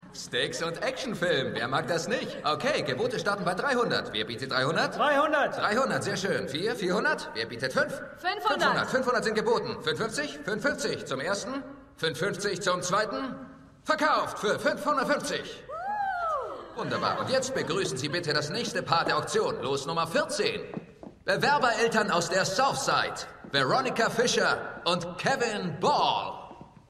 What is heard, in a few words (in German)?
Auktionator Bieter Bieterin, Stimmproben; Shameless